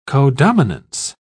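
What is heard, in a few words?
"codominance", English, British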